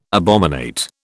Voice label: "abominate", British